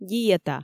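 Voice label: "диета", Komi, female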